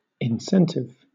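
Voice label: "incentive", British English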